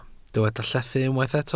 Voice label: "llethu", Welsh